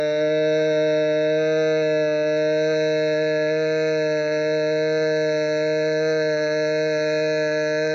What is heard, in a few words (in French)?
"eee", Voyelles; TEACH